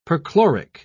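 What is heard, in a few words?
"perchloric", British English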